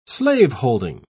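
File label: "slaveholding", British English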